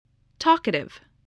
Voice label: "talkative", English